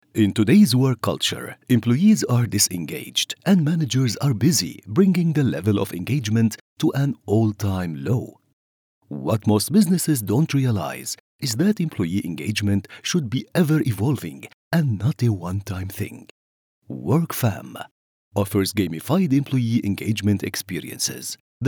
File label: english narration